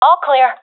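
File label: sees area clear, professional female; vo; Counter Strike Model Pack; CS:GO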